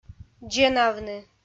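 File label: "дзенавны", Komi, female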